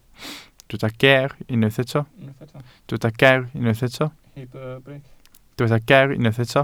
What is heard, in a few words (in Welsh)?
"ger" Welsh